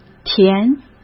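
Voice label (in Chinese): "填", Mandarin Chinese; chinese-flashcards; hsk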